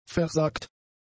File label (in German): "versagt", german; adjectives